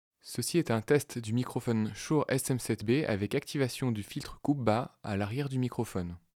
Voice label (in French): test SM7B voix coupe bas